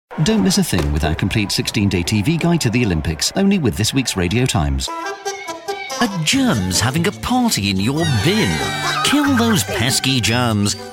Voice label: commercial